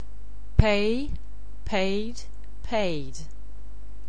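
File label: "pay", English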